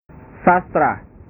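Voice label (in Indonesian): "sastra", Indonesian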